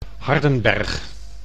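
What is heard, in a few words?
"Hardenberg", Dutch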